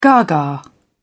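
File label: "gaga", British English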